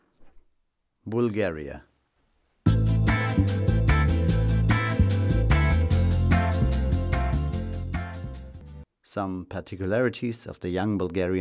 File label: audioguide; art on the stream; bulgaria; kunst am strom; danube cultural cluster